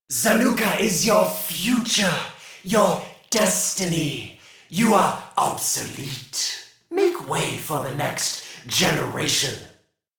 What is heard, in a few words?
Taunt Neutral; Warframe; Alad V Boss; en